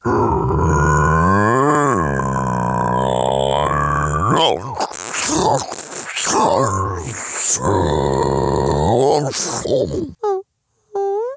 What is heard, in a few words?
Creatures and Monsters